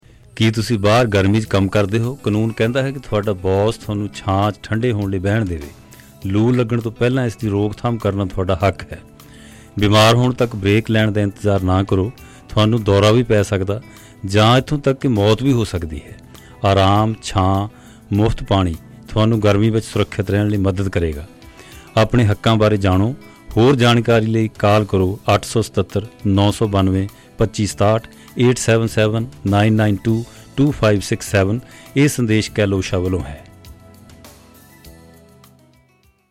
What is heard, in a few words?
Punjabi Radio